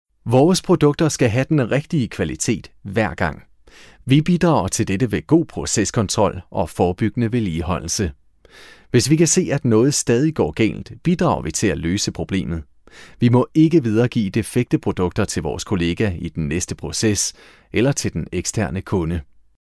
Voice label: danish male e-learning